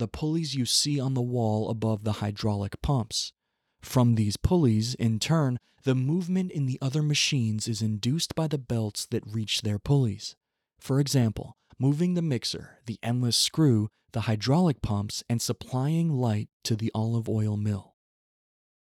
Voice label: Motor y Poleas Ingles, english audio guide; Almazara Hacienda Molino Nuevo